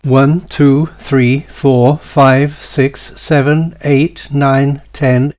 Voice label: one to ten mono; SummerSchool2021